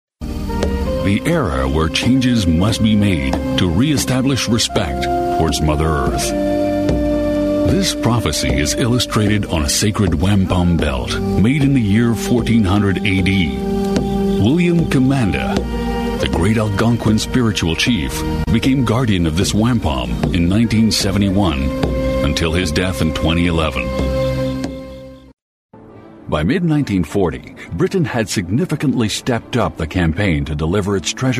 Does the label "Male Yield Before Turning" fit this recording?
No